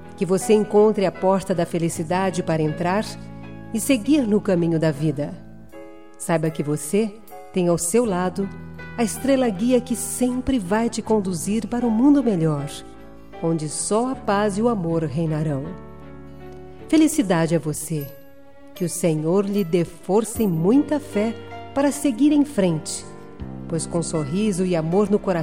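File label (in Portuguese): Evangélica Aniversário de Irmao em Cristo, female, telemensagens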